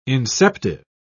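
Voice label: "inceptive", British English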